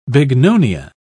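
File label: "bignonia", British English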